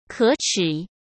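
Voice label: "可耻", Mandarin Chinese; chinese flashcards; hsk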